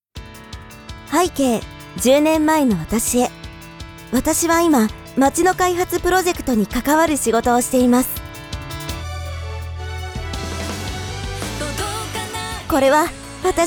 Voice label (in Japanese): アニメCMナレーション; 都市開発大学